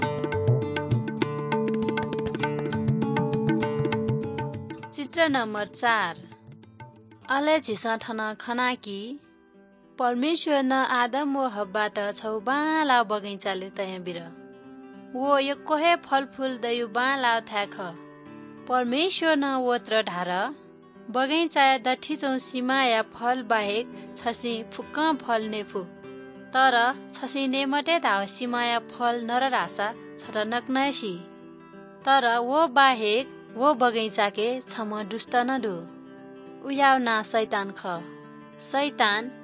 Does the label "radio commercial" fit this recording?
No